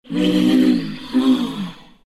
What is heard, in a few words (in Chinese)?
happy; zh; Dota 2